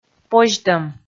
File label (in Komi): "позьтӧм", Komi